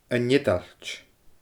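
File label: "An Eadailt", Scottish Gaelic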